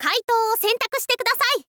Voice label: info girl "kaitou wo sentaku"

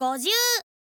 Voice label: "gozyuu", info girl voice

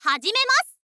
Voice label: "hazimemasu", info girl voice

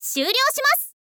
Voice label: "syuuryoushimasu", girl; voice; info girl

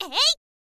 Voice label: "ei", girl; voice

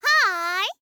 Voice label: "hi", girl

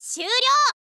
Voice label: "syuuryou", info girl voice

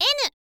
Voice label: "n", girl; voice; info girl

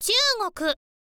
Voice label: "chugoku", girl

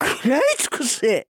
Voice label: necromancer oldwoman special, voice

